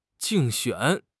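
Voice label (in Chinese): "竞选", Chinese; HSK; HSK6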